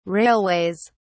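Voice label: "railways", British English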